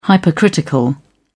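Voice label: "hypercritical", British English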